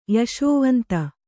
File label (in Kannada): "ಯಶೋವಂತ", Kannada